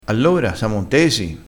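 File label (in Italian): "intesi", vocabolario dialettale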